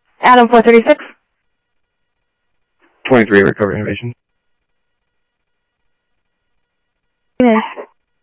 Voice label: scanner audio trunk

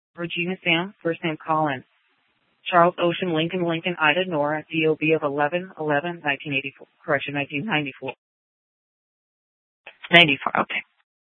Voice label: Sheriff, scanner P25

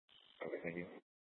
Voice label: Dispatch, scanner; Mesa PD; 4 Superstition Dispatch

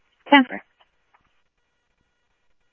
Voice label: Tempe Police, scanner audio; TEMPE PD A04; SimulcastF-Tempe

